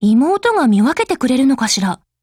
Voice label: COMBINE JP